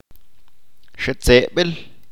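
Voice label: "Calabozo cárcel", Chalchiteko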